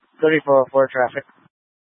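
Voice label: Incident Tac 3, Scanner audio recording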